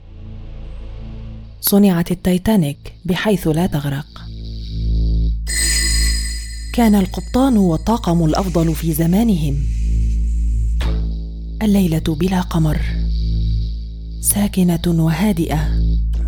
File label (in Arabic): عينة للون الوثائقي